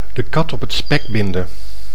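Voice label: "de kat op het spek binden", Dutch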